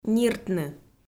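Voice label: "ниртны", Komi, female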